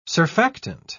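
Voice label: "surfactant", British English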